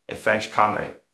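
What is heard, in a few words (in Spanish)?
Voice Acting, Spanish, male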